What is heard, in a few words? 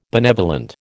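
"benevolent", American English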